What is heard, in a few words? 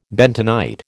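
"bentonite", American English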